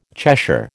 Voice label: "Cheshire", American